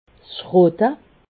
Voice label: "schroote", alsace

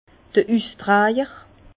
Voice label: "ustrajer", Bas Rhin; vin; porteur de raisin

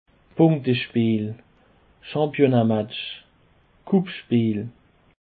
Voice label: "punktespeel", Alsace

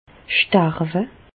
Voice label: "starwe", Bas Rhin; medecine; mourir

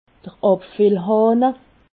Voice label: "abfillhahne", alsace

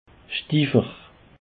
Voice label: "schtifer", Alsace

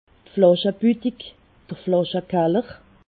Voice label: "flaschebudig", alsace

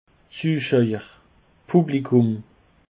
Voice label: "zuschaer", Alsace

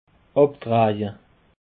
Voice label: "abdreje", Alsace

